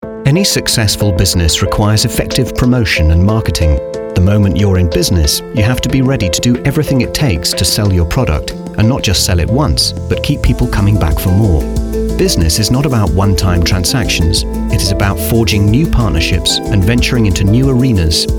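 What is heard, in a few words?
British English corporate; engelsk-uk, mann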